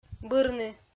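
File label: "бырны", Komi-Zyrian, female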